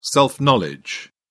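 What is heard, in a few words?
"self-knowledge", British English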